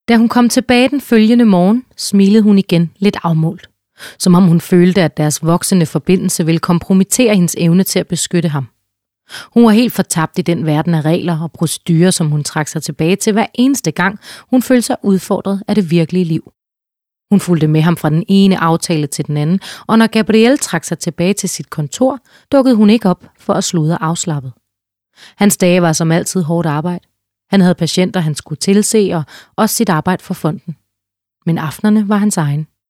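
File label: Danish, Audiobook, female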